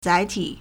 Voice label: "zai4ti3", Chinese

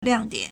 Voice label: "liang4dian3", Chinese

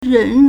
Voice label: "ren3", Chinese

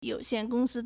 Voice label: "you3 xian4 gong1 si1", Chinese

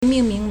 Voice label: "ming4ming2", Chinese

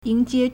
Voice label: "ying2 jie1", Chinese

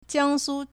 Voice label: "jiang1 su1", Chinese

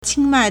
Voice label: "qing1 mai4", Chinese

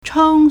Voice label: "cheng1", Chinese

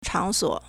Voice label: "chang3suo3", Chinese

